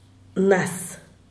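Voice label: "nas", Zapotec, Díaz Ordaz; antier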